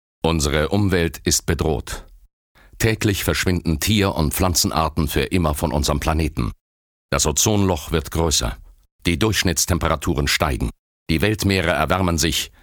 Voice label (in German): kommentar